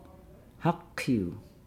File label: "baseball", Nukuoro